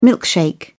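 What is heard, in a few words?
"milkshake", British English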